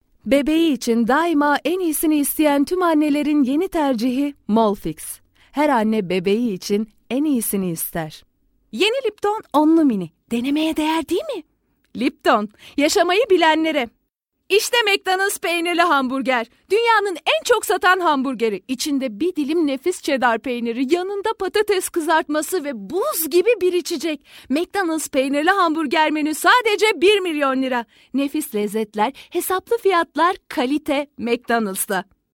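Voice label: Turkish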